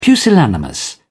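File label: "pusillanimous", British English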